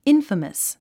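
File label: "infamous", English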